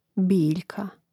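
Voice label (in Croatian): "biljka", Hrvatski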